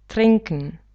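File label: "trinken", German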